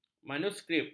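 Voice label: "manuskrip", Malay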